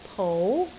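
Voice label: "pou2", Cantonese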